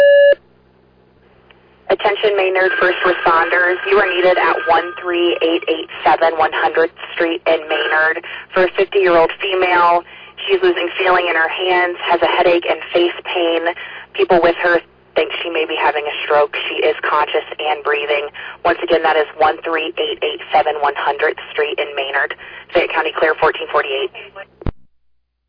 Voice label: Fire, dispatch; Maynard